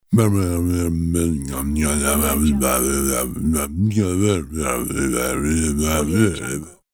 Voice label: comical unintelligible man mumbling; cartoon